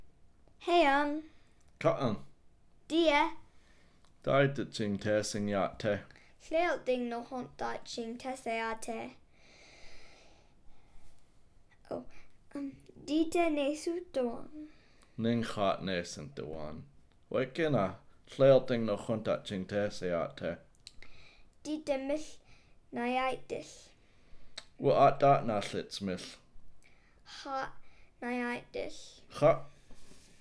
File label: conversation, Hupa